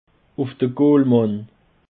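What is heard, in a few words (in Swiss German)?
"goolmann", Bas Rhin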